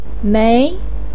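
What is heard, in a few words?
"mei", Mandarin pinyin, tone 2; 媒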